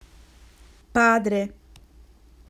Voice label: "Father" "Padre"